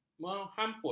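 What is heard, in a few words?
"menghamput", Malay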